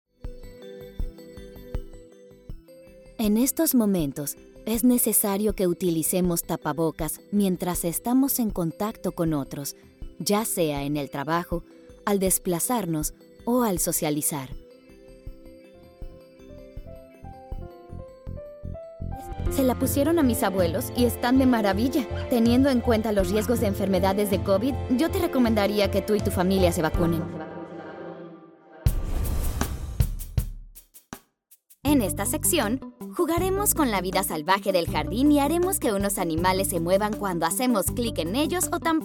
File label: Spanish elearning